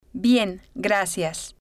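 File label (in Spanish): bien Gracias, spanish, female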